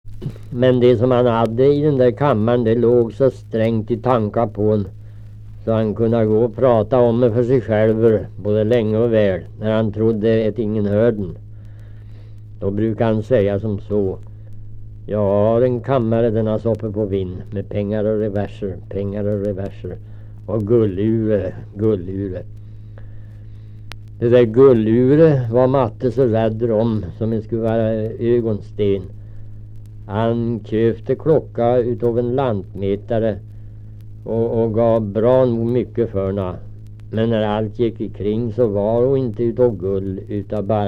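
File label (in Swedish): Riala Uppland, dialekter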